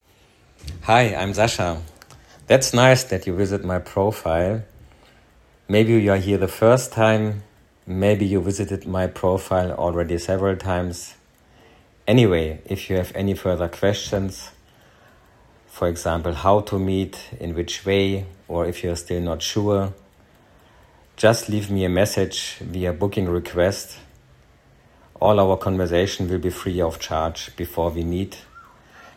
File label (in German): Escort Mann Audiovorstellung englisch